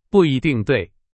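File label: Chinese, example sentence; hsk2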